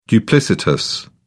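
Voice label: "duplicitous", British English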